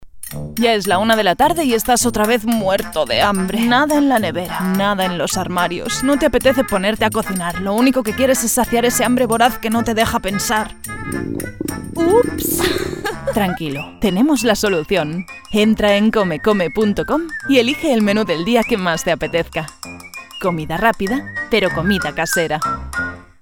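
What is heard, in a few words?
ESEU Commercial, Spanish (Spain)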